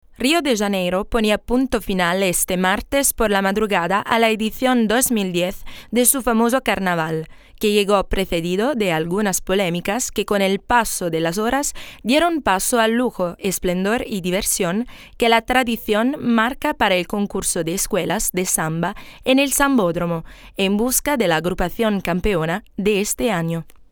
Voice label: SPAGNOLO normale

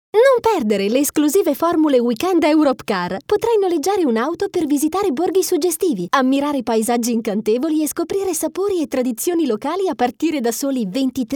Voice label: ITALIANO DINAMICO, speaker italiano speakeraggio

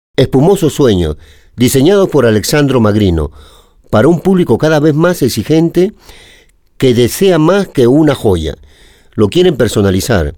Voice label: spagnolo normale

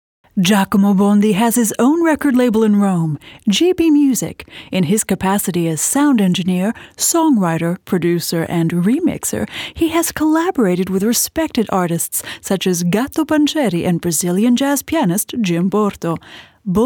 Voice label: inglese dinamica

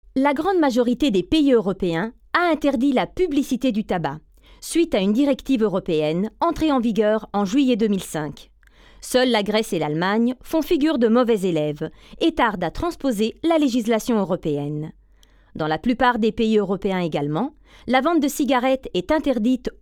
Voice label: FRANCESE SERIA; FEMMINILE; Speaker Madrelingua

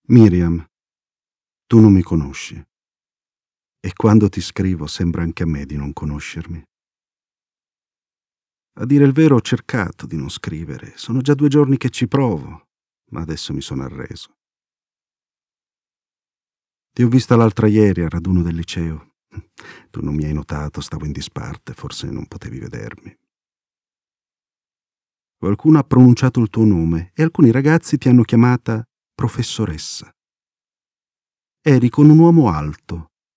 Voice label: ITALIANO IMPOSTATO

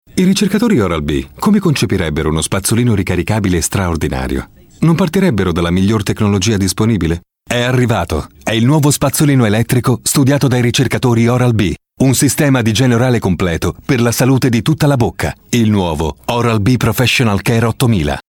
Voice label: ITALIANO normale, speakers

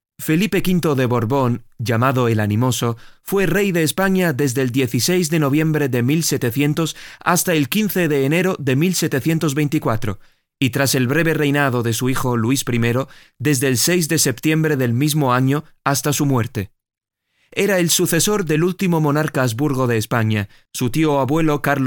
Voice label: spagnolo NORMALE

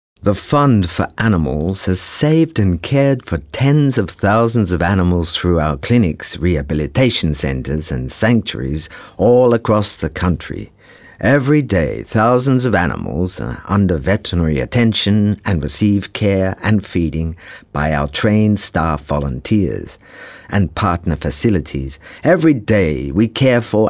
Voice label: INGLESE AUSTRALIANO IMPOSTATO

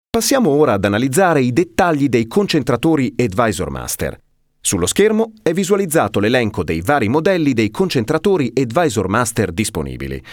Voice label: ITALIANO IMPOSTATO, speakers

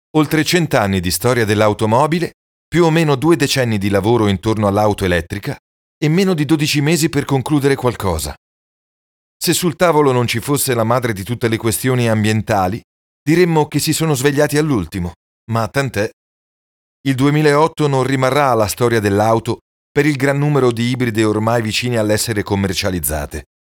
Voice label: ITALIANO normale

